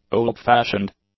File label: "old-fashioned", English; adjectives